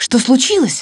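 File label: "chto", Russian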